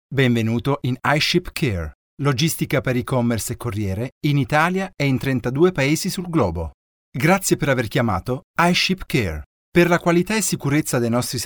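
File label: Italian male voice over, narrative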